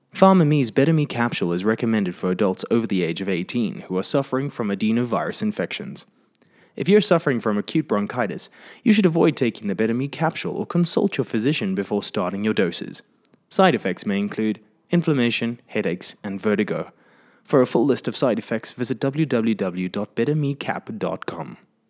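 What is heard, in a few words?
Neutral South African Pharma Voice Over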